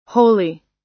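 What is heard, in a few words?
"holy", US English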